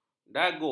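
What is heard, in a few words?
"daguk", Malay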